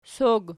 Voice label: "sog", Archi